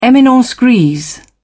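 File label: "eminence grise", British English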